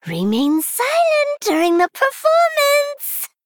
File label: female gnome, Emote Response